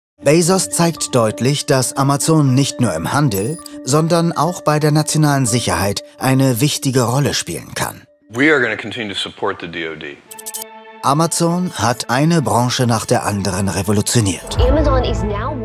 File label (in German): Doku Kommentar; Bezos